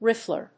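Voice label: "riffler", English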